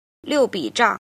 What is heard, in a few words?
"jin", Chinese